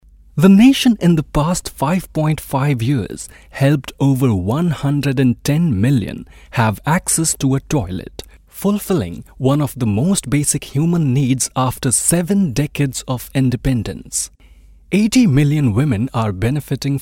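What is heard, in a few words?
English male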